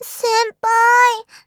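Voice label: "senpai", Japanese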